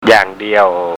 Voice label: "jang di-au", Thai

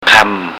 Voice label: "kamm", Thai

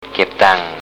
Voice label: "kep tang", Thai

